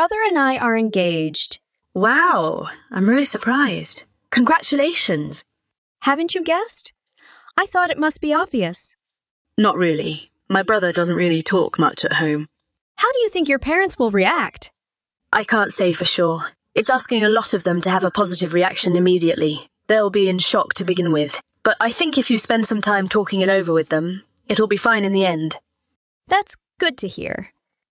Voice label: Brunch Dialogue